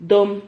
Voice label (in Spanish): "dom", Silabas